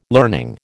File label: "learning", American